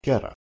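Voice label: "kerh2", Proto-Indo-European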